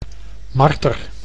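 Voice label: "marter", Dutch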